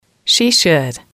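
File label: "she should", English, female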